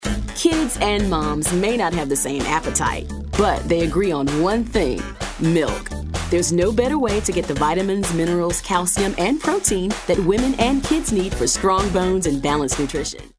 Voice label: upbeat

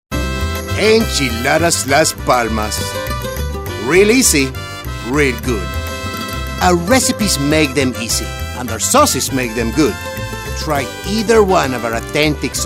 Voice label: spanish dialect

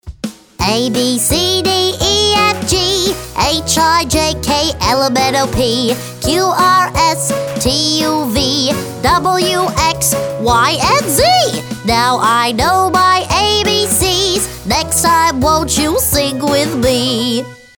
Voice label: singers cartoon

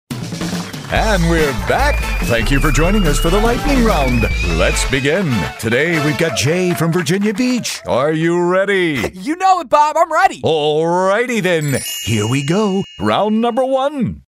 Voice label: Gameshow

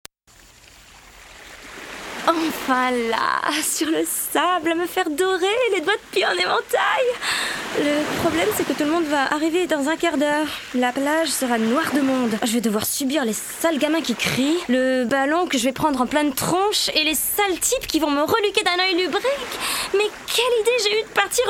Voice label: French voice audio sample

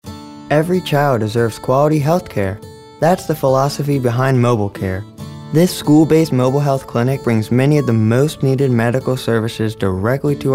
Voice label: Caring

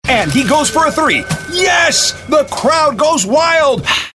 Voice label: sportscasters

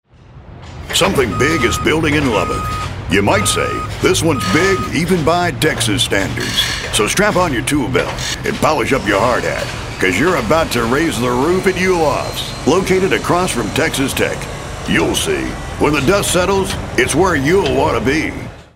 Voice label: tough and gritty

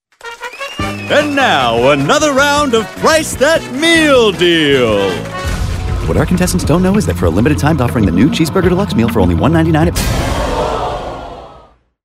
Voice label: Game Host Show

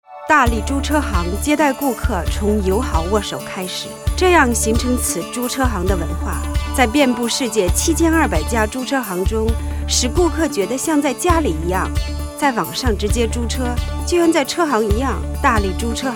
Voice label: Rent A Car Chinese Mandarin

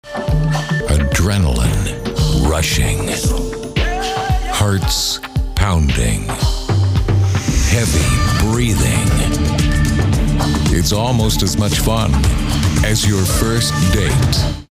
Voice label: ominous

